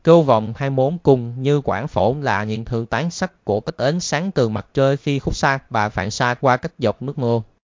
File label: speaker, vi VN; piper voices; vivos